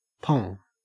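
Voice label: "pong", Australian English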